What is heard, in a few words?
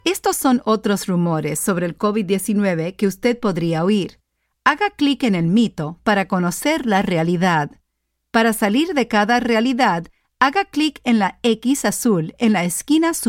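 Covid19 eLearning Spanish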